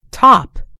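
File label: "top", American English; gcompris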